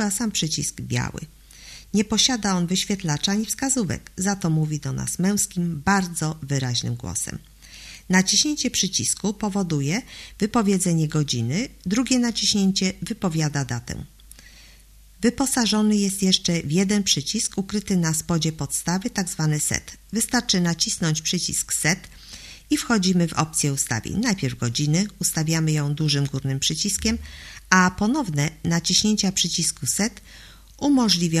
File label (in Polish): budzik mowiacy po polsku